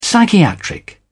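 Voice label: "psychiatric", British English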